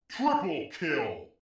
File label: "triple kill", announcer; instagib; blocks and bullets